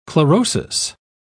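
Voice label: "chlorosis", English, British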